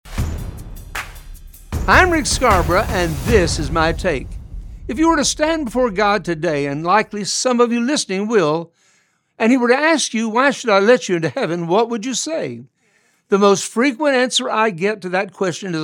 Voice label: Radio Spot